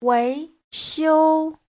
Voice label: "wei2xiu1", Chinese